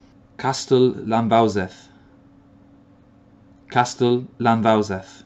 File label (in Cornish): "Kastal Lannvowsedh", Place Names Pronunciation